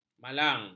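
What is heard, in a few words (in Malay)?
"malang", Malay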